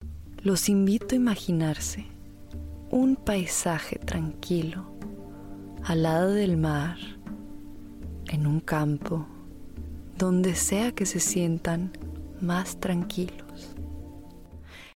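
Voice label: Latin American Spanish commercial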